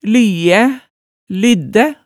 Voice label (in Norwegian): "lyæ", hemsedalsmaalet, tale